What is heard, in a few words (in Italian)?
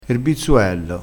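"bizzuello", vocabolario dialettale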